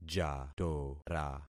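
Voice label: "jatora", concatenated syllables; Affixing experiment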